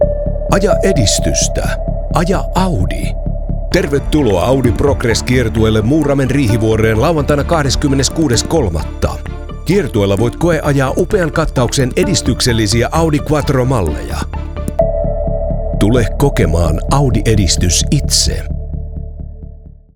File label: Commercial